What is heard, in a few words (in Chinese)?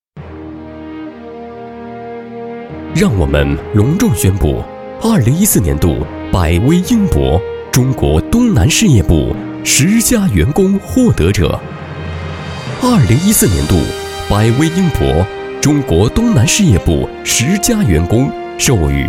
男 颁奖; 百威